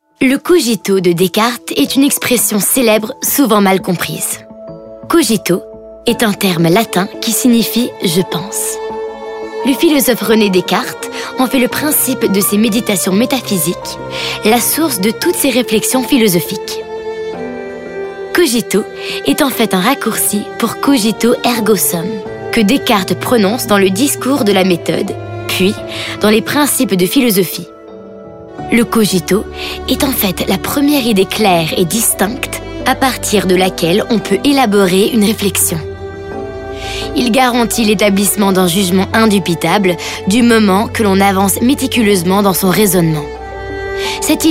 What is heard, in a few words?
narration